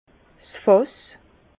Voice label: "fass", Bas Rhin

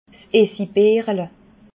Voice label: "essigbeerle", alsace

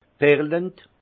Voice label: "perlend", alsace; vin; pétillant

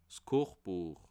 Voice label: "kochbuech", alsace

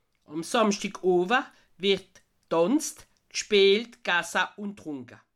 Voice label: "samschdig", alsace

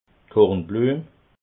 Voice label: "kornbluem", alsace

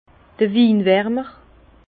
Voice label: "winwarmer", Bas Rhin; vin; réchauffeur du vin

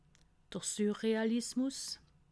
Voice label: "surrealismus", alsace

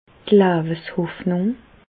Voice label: "leweshoffnung", Alsace, Bas Rhin; medecine; espérance de vie

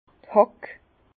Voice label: "hack", Bas Rhin; vin

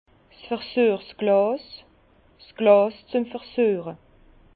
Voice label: "versuechsglas", Bas Rhin; vin; verre de dégustation

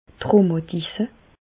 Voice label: "rhumatisse", Bas Rhin; medecine; arthrite

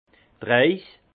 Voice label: "reis", alsace; vin; voyage

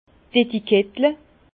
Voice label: "etikettle", Bas Rhin; les étiquettes; vin

